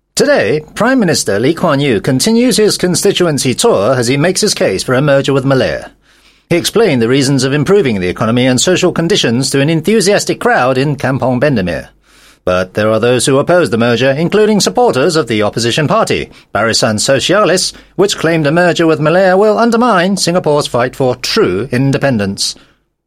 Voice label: UK English Telemovie, Male; C5

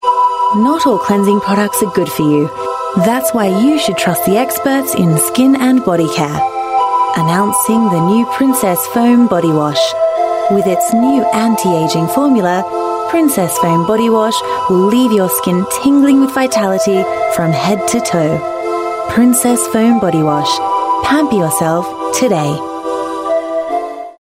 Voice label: Aus English, Australian Female

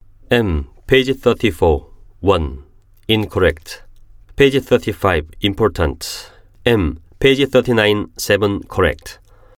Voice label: Korean Accented English, Korean Male

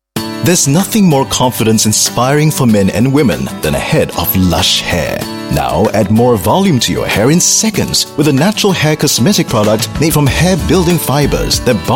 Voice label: Asian English radio spot; Lush Hair

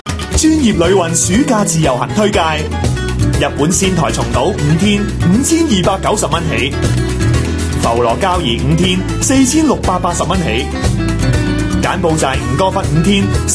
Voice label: Cantonese; TE SummerProduct

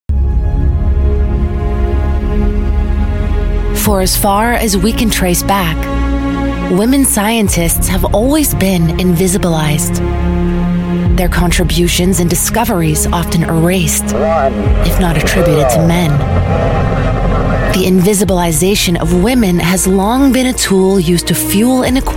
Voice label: US English; LOreal x UNESCO

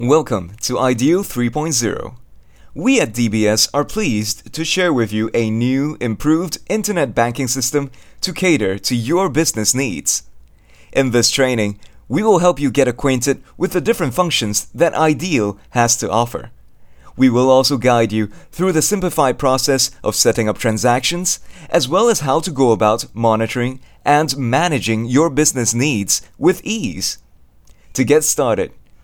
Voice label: Asian English voice over; IDEAL

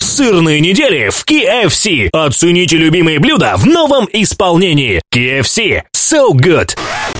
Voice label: Russian Male; KFC

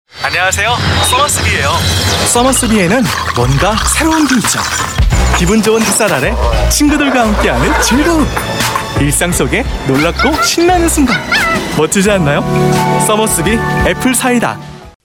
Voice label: Korean

